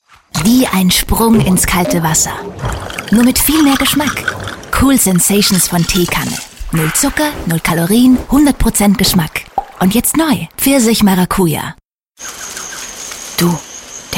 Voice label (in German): Sprecher Showreel